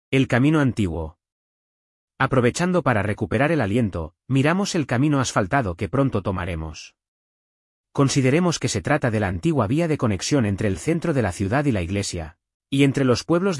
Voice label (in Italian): Audioguida SPA Strada